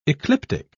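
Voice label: "ecliptic", British English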